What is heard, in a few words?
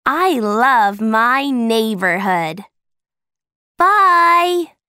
Audio American English; Where I Live